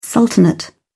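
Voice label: "sultanate", British English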